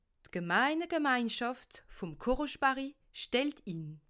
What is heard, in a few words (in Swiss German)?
"gemeine", alsace